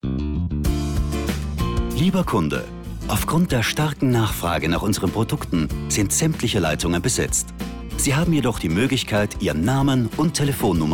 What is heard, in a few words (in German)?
telefonband; vitatherm; LOFT VOICES